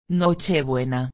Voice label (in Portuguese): "nochebuena", espanhol; palavra do dia